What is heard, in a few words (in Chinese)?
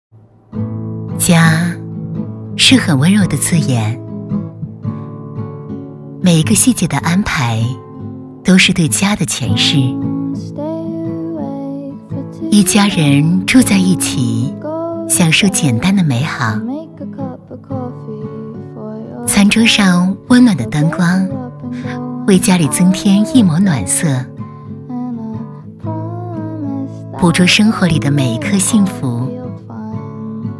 女 房地产 浪漫温馨; 《润府》